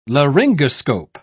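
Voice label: "laryngoscope", British English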